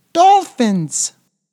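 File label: "dolphins", English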